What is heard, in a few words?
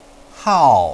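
"hao", Mandarin Chinese, fourth tone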